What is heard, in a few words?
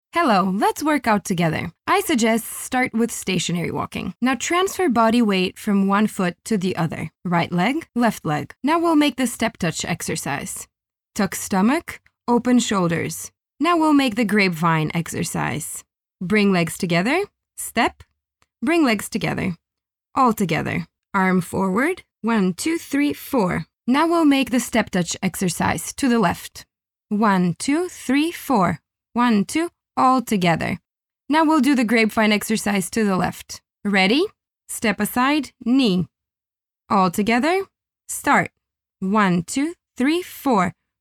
english us f narration, voice demos